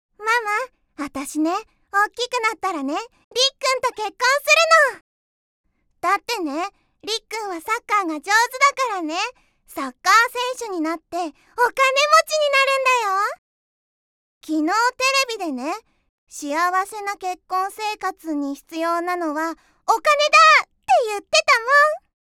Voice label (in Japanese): セリフ3【女の子】; ◇出身地：長野県